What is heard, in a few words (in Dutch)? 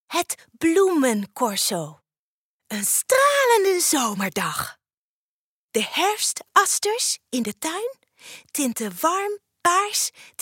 POPPENVITRINE meisje van 10; Huis Van Gijn